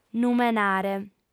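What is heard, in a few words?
"numenare" Sardinian, female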